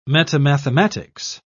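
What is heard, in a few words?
"metamathematics", English, British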